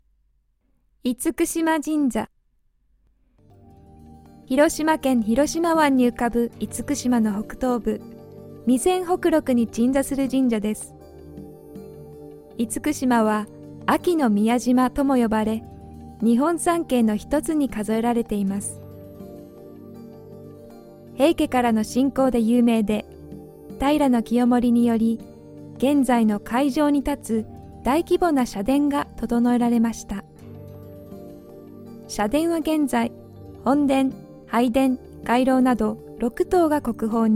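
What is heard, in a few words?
Japanese, Narration; GoLocalise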